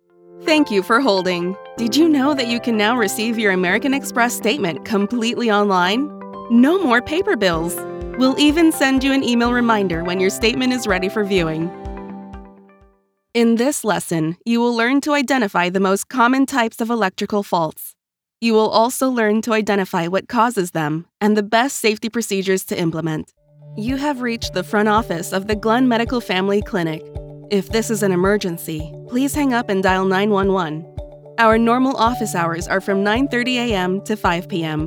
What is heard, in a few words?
ELearning & IVR; U.S. female voice actor and voiceover talent based in South Flo